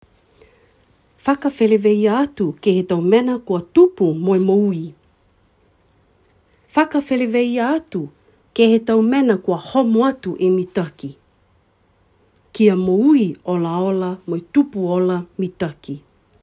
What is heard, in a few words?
niuean